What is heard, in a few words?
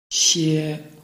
"xie1", Chinese